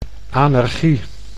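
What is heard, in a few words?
"anergie", Dutch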